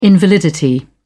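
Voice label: "invalidity", English, uk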